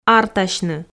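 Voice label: "артасьны", Komi, female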